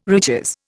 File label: "riches", Indian